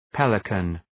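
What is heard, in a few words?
"pelican", English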